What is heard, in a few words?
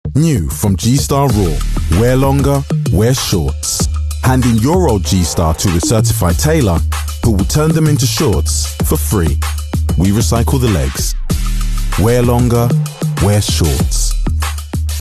UK; G-star Shorts